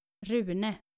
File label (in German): "rune", nordische jungennamen